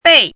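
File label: "bei", Mandarin Chinese, fourth tone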